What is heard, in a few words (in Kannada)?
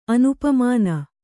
"ಅನುಪಮಾನ", Kannada